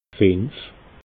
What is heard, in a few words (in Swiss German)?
"finf", alsace